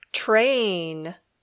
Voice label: "train", English